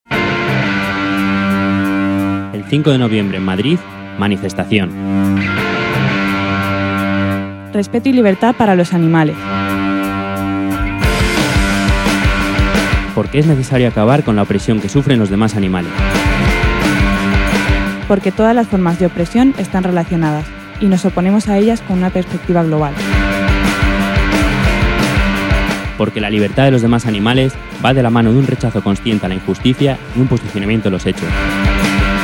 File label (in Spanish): cuña